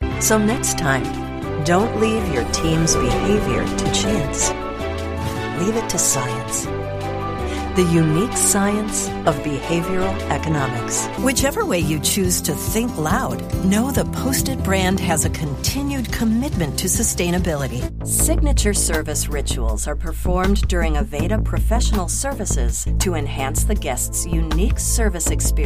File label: Narration